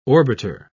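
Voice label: "orbiter", English, British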